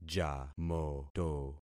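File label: "jamoto", concatenated syllables; Affixing experiment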